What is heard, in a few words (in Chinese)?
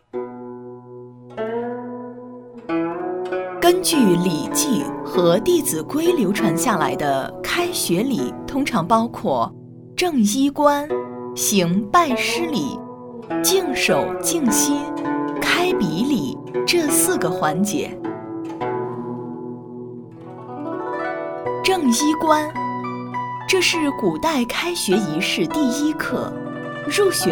专题知性稳重大气; 古代开学典礼